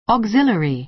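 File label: "auxiliary", American English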